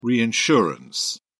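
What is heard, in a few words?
"reinsurance", British English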